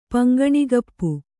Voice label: "ಪಂಗಣಿಗಪ್ಪು", Kannada; paŋgaṇigappu; noun